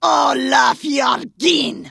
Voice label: Vrykul Female Warrior Greeting

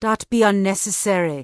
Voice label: Troll Female Laid Back Pissed; NPC

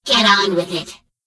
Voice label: Gnome Female Greeting; Death Knight

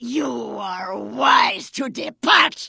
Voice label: Vrykul Female Warrior Farewell

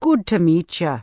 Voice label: Troll Female Laid Back Farewell; NPC

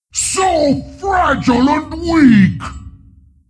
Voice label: Slay, Creature; Steelbreaker

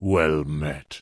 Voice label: Tauren Male Elder NPC Greeting

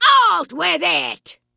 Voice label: Vrykul Female Magic User Greeting

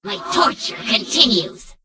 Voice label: Gnome Female Pissed; Death Knight

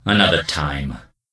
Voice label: Death Knight Male Low Farewell

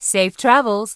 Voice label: Human Female Standard NPC Farewell

